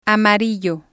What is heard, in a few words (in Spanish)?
"amarillo", spanish